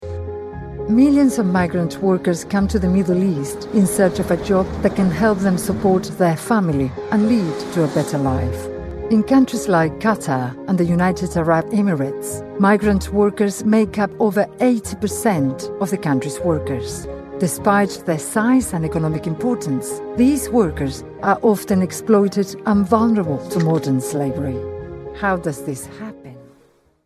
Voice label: english narrative

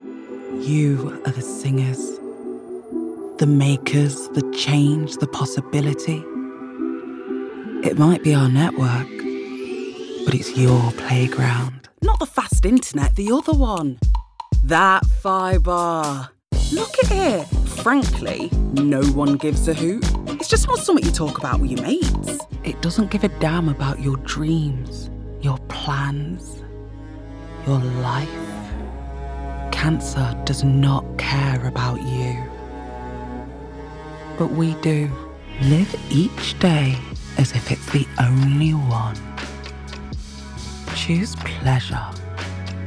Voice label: commercial

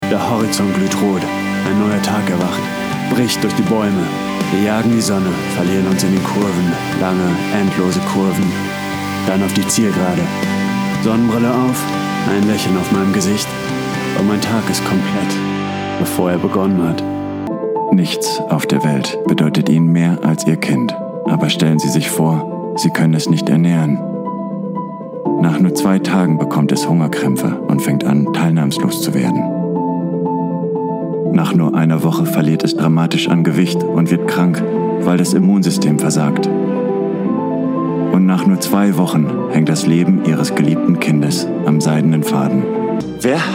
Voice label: German

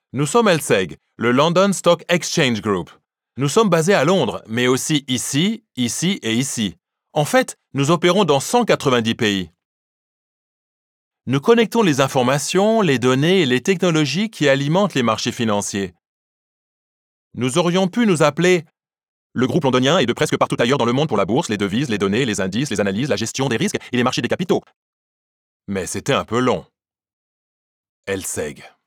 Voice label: French Commercial

